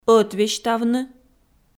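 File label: "ӧтвесьтавны", Komi, female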